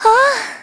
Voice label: Vox Happy; kingsraid